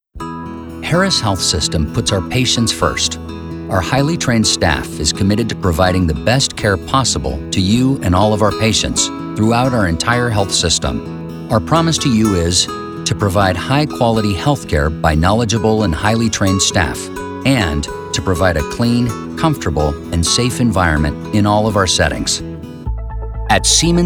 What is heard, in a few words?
Corporate - Friendly, Confident Spanish Informative, Inspirational